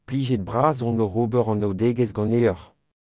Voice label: meet, breton; Pleased to meet you; Plijet bras on oc’h ober anaoudegezh ganeoc’h

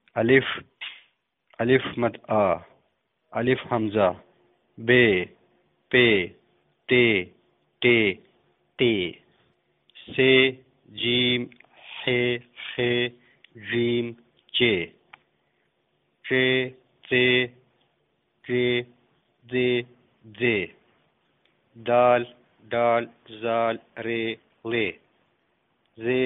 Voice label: khowar alphabet

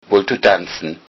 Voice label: dance, low saxon

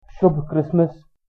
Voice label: christmas hindi

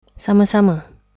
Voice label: you're welcome, malay

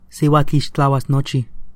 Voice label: pay nahuatl

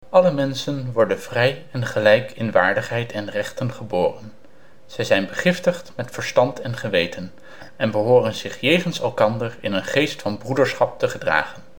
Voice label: udhr nl

